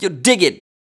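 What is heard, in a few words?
vocal male, Dance Vocals, spoken words; Best Service ProSamples vol.12; AKAI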